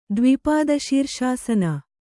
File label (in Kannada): "ದ್ವಿಪಾದಶೀರ್ಷಾಸನ", Kannada